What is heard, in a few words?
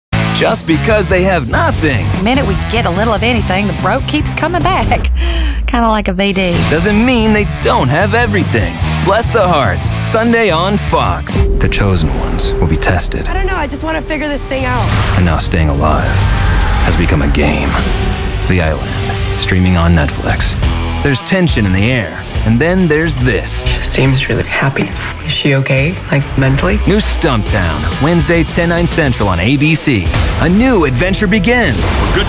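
Promos, voice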